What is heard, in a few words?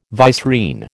"vicereine", American